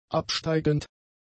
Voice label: "absteigend", german; adjectives